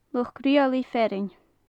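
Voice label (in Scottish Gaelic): "luchd-riaghlaidh fearainn", Gaelic; land manager; neach-riaghlaidh fearainn; manaisdearan-fearainn; Faclan Nàdair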